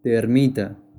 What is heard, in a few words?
Termite spanish